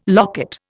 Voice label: "locket", Indian